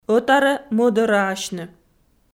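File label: "ӧтарӧ-мӧдарӧасьны", Komi, female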